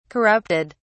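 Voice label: "corrupted", British English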